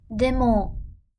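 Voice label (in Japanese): "でも", Japanese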